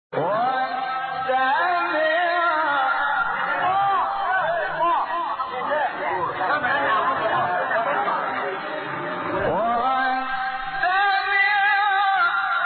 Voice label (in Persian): تلاوت روحبخش آیه 41 سوره مبارکه ق; شحات محمد انور